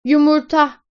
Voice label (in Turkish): "Yumurta", Turkish